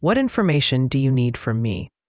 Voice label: question english